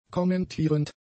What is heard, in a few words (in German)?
"kommentierend", German; adjectives